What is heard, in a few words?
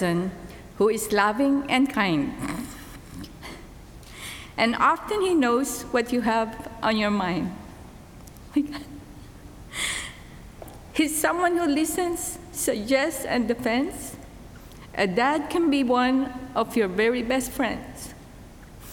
poem